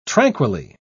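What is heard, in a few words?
"tranquilly", British English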